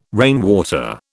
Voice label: "rainwater", British